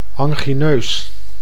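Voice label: "angineus", Dutch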